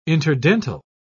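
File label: "interdental", English, British English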